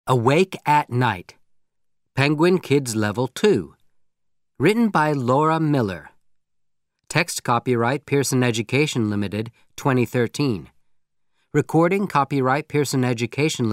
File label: Audio American English Intro Titles